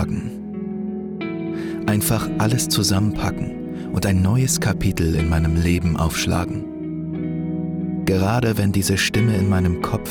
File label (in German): Werbung Manchmal